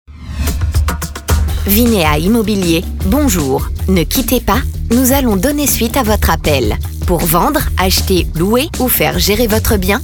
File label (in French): message d'accueil avec musique; Vinea Immobilier